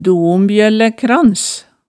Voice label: "dombjøllækrans", hemsedalsmaalet tale

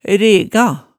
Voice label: "rega", Hemsedal

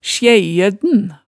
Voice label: "sjæijødn", hemsedalsmaalet

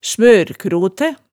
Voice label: "smørkrotæ", hemsedalsmaalet, tale

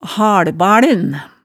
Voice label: "halbalen", hemsedalsmaalet

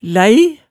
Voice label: "læi", hemsedalsmaalet, tale

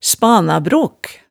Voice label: "spanabrok", hemsedalsmaalet

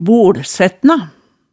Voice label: "bolsætna", hemsedals maalet tale

